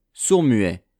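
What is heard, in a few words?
"sourds-muets", French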